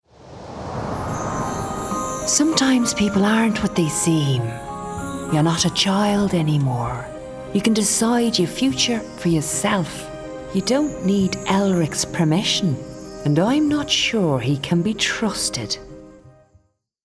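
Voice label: Ethereal Spirit Guide S. Irish Animation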